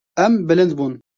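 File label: kurdish; em bilind bun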